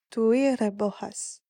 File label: civ female hunt, napatan